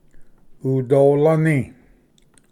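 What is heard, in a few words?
"udolani", Cherokee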